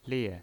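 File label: "lie", pinyin, Mandarin Chinese, fourth tone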